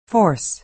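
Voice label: "force" American English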